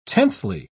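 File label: "tenthly", British English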